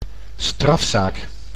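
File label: "strafzaak", Dutch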